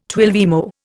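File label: "twelvemo", Indian English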